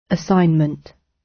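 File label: "assignment", British; Study, education, research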